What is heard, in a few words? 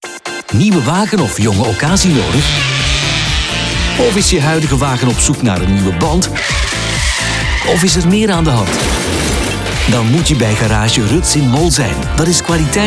radio commercial